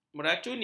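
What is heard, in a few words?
"meracuni", Malay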